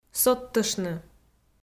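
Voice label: "содтыштны", Komi, female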